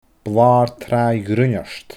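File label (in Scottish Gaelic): "Blaar Traaigh Ghruinneart", Scottish Gaelic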